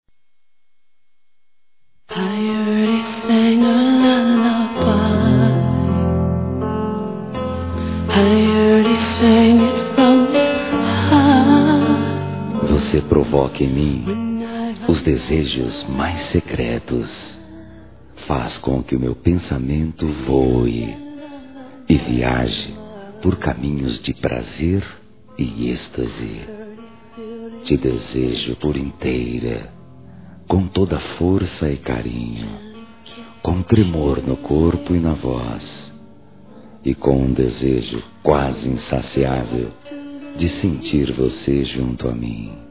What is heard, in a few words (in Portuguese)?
telemensagem, ErÓtica para mulher, M